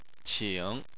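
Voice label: "qing", Chinese, tone 3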